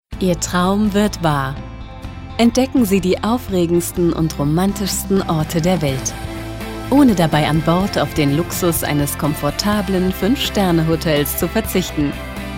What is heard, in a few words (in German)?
DE Werbung